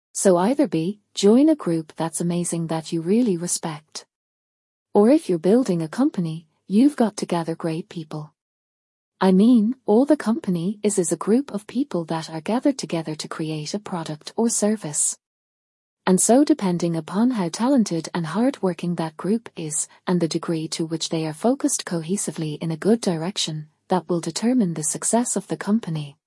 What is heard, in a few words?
sexy